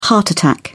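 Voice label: "heart attack", British English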